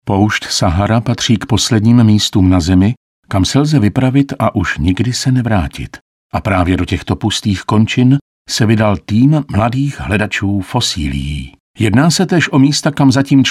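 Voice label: male Czech documentary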